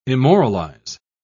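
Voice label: "immoralize", British English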